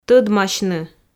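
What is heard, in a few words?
"тӧдмасьны", Komi, female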